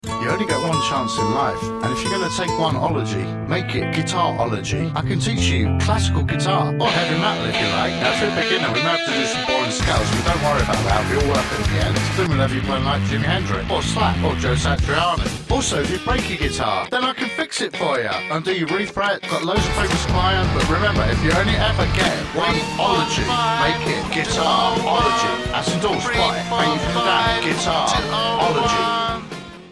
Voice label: Guitarology, Adverts